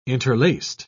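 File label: "interlaced", English, British English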